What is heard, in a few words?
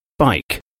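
"bike", English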